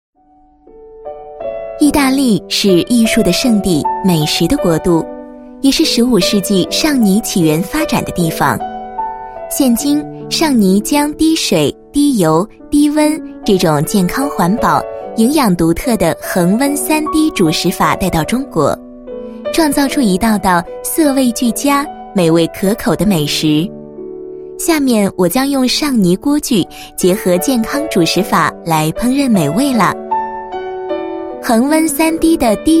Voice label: 女19 专题 商品导览, 国语女声配音; 尚尼锅具简介

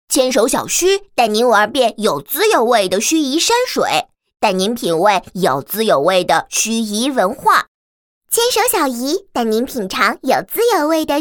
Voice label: 女 童声 旅游推介; 盱眙

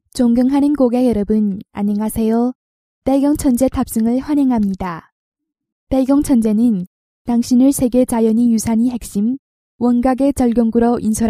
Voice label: 韩语; 百龙天梯

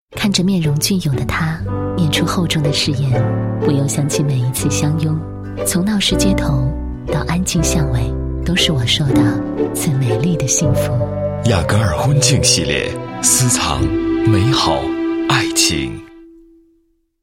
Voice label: 国语女声配音，广告 婚庆系列 男女合配; 优雅; 雅戈尔

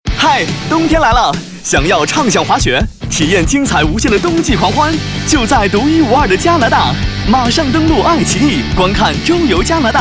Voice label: 男 旅游 激情年轻; 加拿大旅游

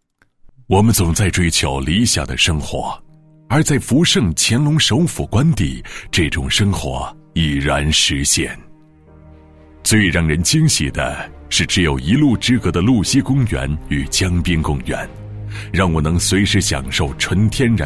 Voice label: 男声 独白 自然磁性; 内心独白

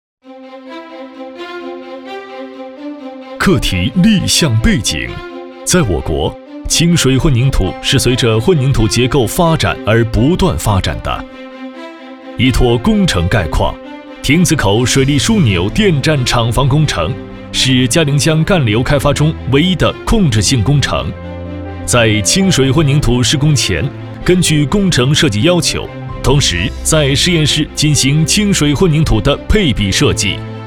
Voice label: 男 课件 工程介绍; 中国水利集团工程介绍

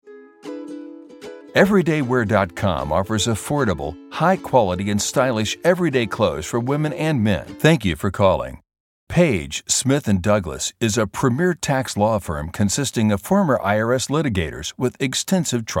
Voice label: 语音叙述

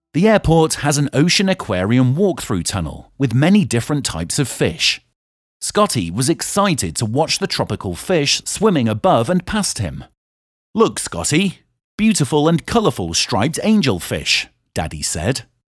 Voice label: 外籍英男 故事讲述 轻快 口语化

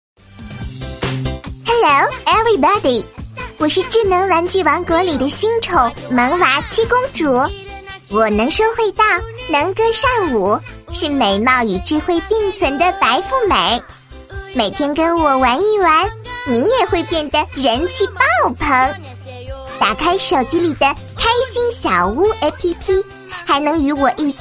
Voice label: 女19 女童 游戏语音 欢乐女童; 七公主玩具

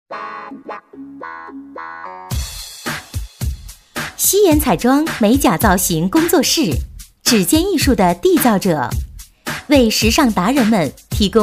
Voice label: 女 广告, 国语女声配音; 熙颜彩妆

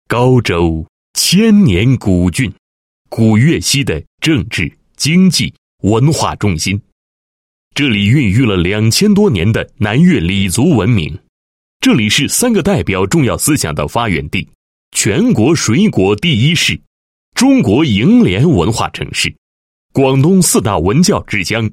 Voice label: 男 解说; 打造教育强市推进均衡发展

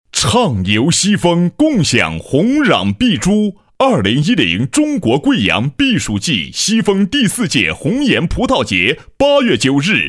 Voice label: 男7 广告 葡萄节开幕, 国语男声配音

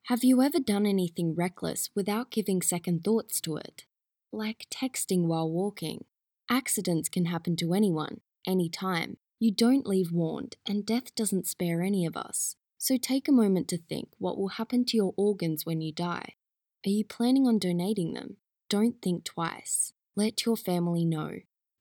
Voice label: 外籍英女-器官捐献宣传-广告

